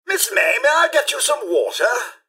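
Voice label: hello, robot; rivetcity; mrhandy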